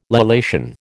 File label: "lallation", American